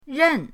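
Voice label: "ren", Mandarin pinyin, fourth tone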